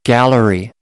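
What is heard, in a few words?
"gallery", English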